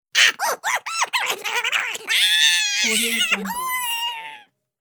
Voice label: cartoon voice angry grumbling